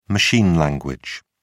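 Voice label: "machine language", British English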